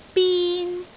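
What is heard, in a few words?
"bin1", Cantonese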